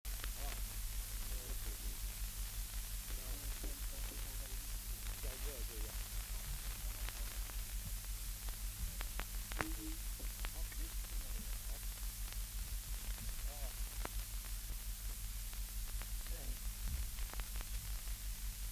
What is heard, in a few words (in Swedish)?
Svenska sagor, dialekter; Folkminnen